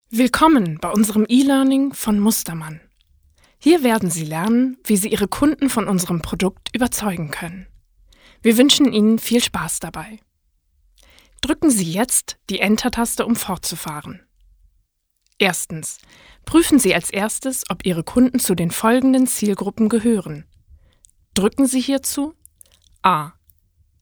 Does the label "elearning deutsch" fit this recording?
Yes